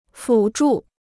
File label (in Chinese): "辅助", Chinese